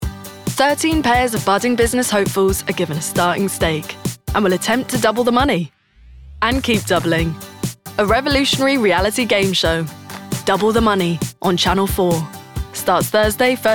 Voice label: Promo